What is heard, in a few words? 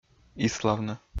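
"иславны", Komi-Zyrian, male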